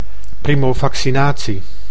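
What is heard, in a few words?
"primovaccinatie", Dutch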